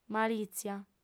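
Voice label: "malìtzia", female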